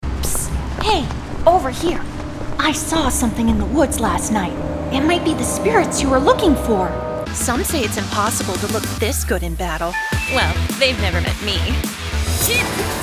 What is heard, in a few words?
character